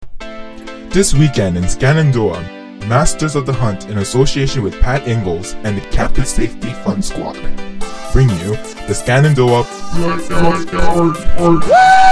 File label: commercial